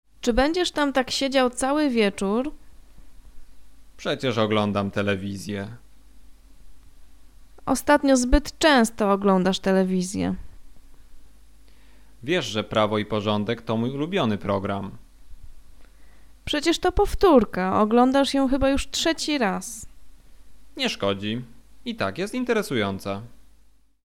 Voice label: conversation; lektorek; firstyear